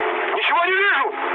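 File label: searchlite, mood med, Russian; War Thunder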